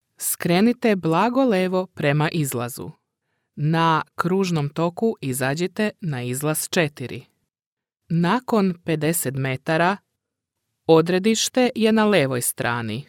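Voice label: Serbian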